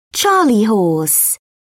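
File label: "charley horse", British English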